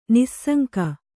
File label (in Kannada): "ನಿಸ್ಸಂಗಿ", kannada